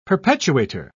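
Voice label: "perpetuator", British English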